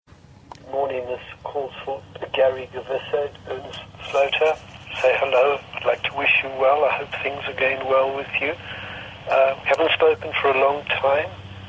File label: Voice Mail